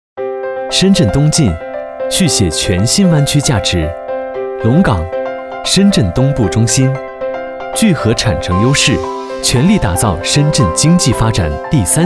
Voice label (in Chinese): 男 专题 时尚轻松; 紫和嘉园